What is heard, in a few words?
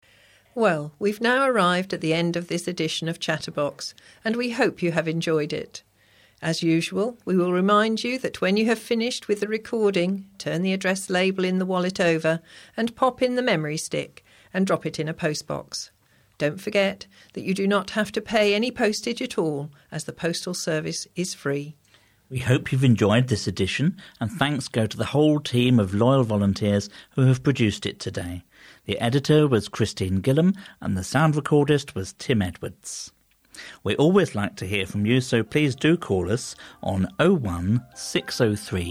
Closing Announcement